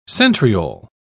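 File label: "centriole", British English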